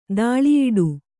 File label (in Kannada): "ತಲೆಯುಡೆ", Kannada